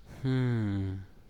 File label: boy fifth dialogue